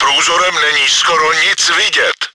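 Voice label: surveying devices crit damaged, чешской озвучки; World of Tanks; Czech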